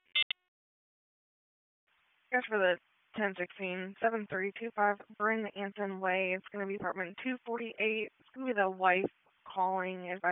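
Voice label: Police Northeast District Dispatch, p25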